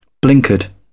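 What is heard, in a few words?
"blinkered", British English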